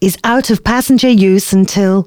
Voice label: announcements, female